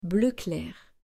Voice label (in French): "Bleu clair", French